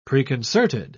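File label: "preconcerted", British English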